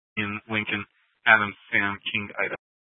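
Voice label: Dispatch, Scanner audio recording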